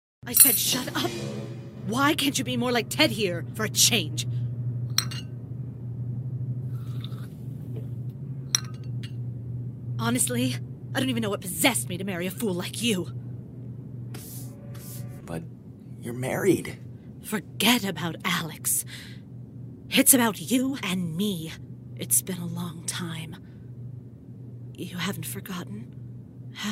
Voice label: Live Action Voiceover